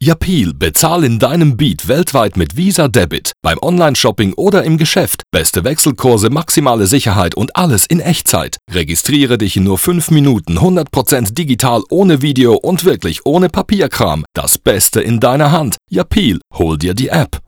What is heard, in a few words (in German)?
Spotify DE; YAPEAL